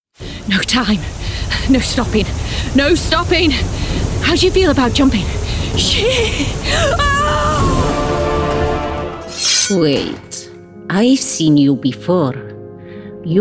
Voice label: British Female Voice Over and Actor Gaming